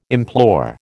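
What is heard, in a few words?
"implore", American English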